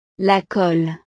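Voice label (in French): "la colle", French; utiles escolares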